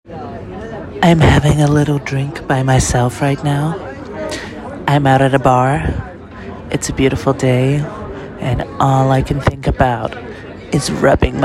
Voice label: A Quick Message from a Crowded Bar Terrace

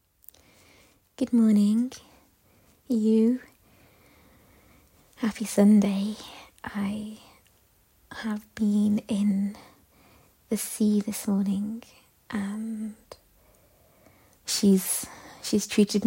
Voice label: solo woman, british accent, storytelling; F4M; mutual masturbation; PiV; oral; Salty kisses

